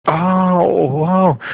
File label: au wow